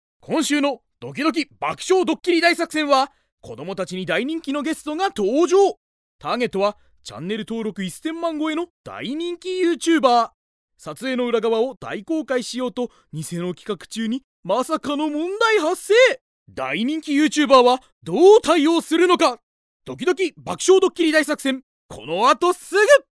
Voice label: Narration Variety; ナレーション(バラエティ); bloomz-inc